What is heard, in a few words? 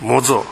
"mozo", minamiyamagata